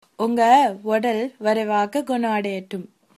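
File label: get well soon, tamil, female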